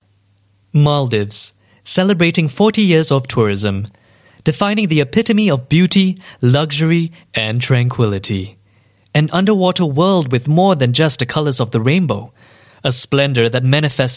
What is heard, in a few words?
Asian English Radio Spot, English Singaporean Male; Maldives